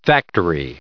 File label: "factory", en-us